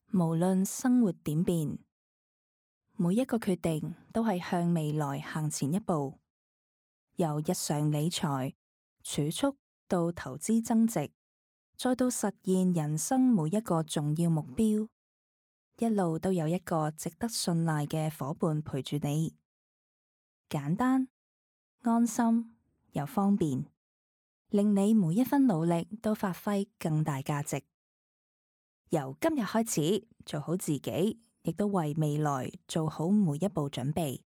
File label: Commercial